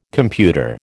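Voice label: "computer", American English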